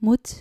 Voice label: "moed", Dutch

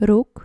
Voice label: "rook", Dutch

